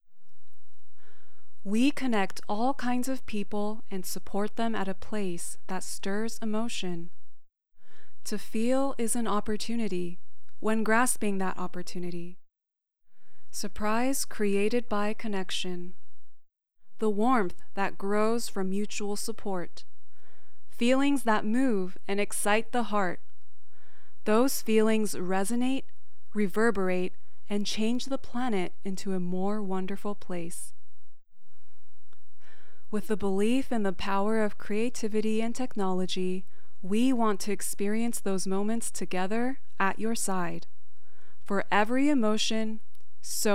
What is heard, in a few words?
Narration English